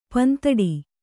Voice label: "ಪಿಂದೊಡೆ", Kannada